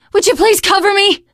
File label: cover me, teengirl; source engine; left 4 dead 2; survivor